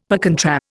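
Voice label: "per contra", Indian English; Dictionary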